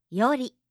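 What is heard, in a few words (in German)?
"yori", japanische Mädchennamen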